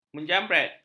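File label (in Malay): "menjambret", Malay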